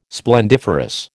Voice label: "splendiferous", American